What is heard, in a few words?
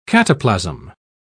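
"cataplasm", British English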